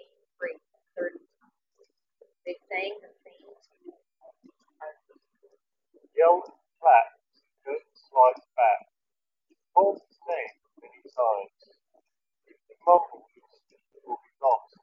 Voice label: JBL Endurance Peak3 Wind microphone sample

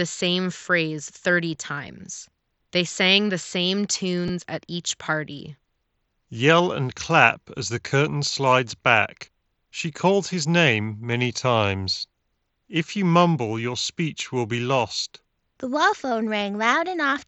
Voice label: SteelSeries Arctis Nova 3X ideal

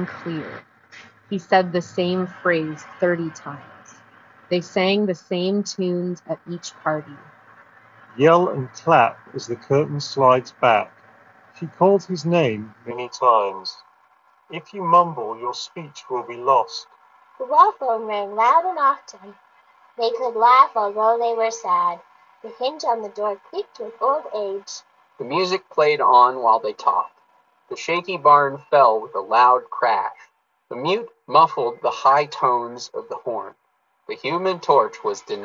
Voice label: Street microphone sample; Jabra Elite 8 Active

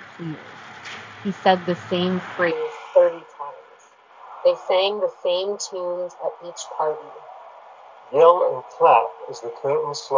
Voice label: Jabra Elite 10 Street microphone sample

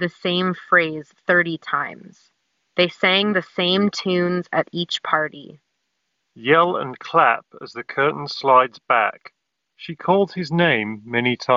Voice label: True Wireless Ideal microphone sample; Sennheiser Momentum 3

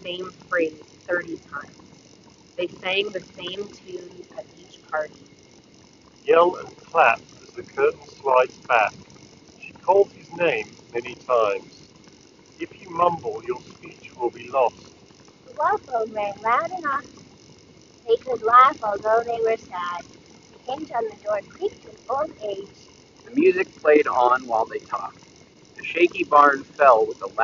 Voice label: Sony WH 1000XM3 Wind microphone sample; soundguys contents and methods audit 2022

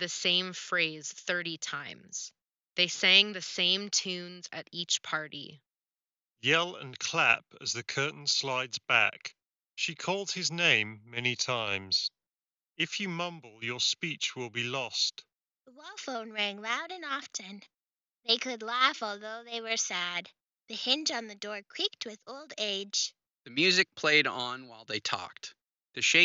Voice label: EPOS H3Pro Hybrid Ideal

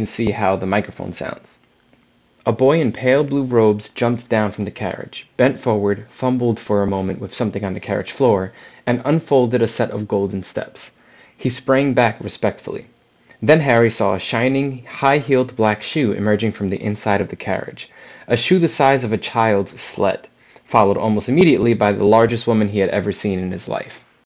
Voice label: Skullcandy Crusher Evo mic

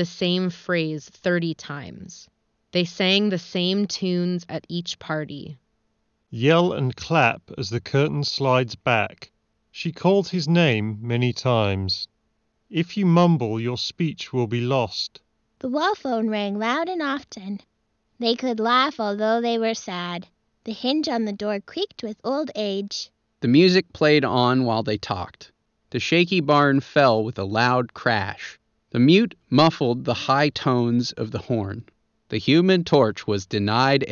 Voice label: HS70 Pro Wireless Ideal microphone sample; Corsair

